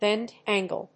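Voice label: "bend angle", English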